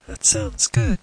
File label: that sounds good, Robotic or Stuttery or Spooky